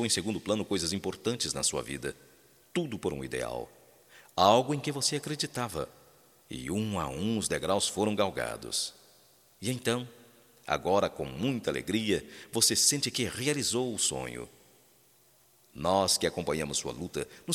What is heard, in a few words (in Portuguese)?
PARABENS VOCE VENCEU M, Só Voz, masc; Telemensagens; Telemensagens 2017